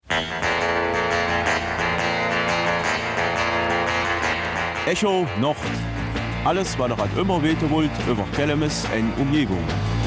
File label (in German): Kelmiser Mundart; Der CMC organisiert einen Motocross in Lontzen